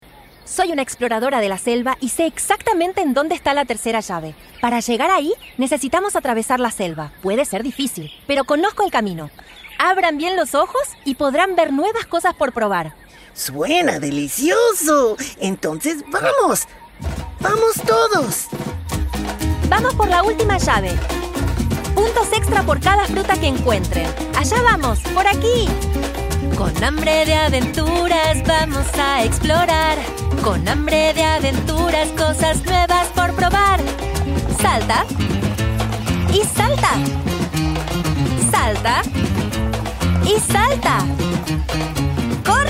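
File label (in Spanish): doblaje y canción, español argentino y Latam; Las Aventuras de Zobey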